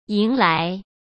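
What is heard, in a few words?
"迎来", Mandarin Chinese; chinese-flashcards; hsk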